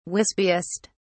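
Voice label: "wispiest", English, British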